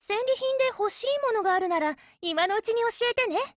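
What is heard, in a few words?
GO ATTACK, Japanese; MP443 Mod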